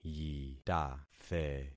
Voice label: "yitathe", concat syllables; Affixing experiment

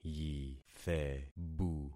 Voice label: "yithebu", concat syllables; Affixing experiment

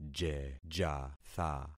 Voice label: "jejatha", concat syllables; Affixing experiment

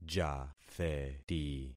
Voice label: "jatheti", concat syllables; Affixing experiment

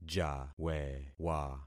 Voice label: "jawewa", concat syllables; Affixing experiment

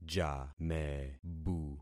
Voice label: "jamebu", concat syllables; Affixing experiment

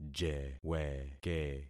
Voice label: "jeweke", concat syllables; Affixing experiment

